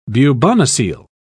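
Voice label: "bubonocele", English, British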